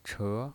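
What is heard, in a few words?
"che", Mandarin Chinese pinyin voice, second tone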